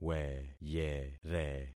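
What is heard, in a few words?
"weyere", concatenated syllables; Affixing experiment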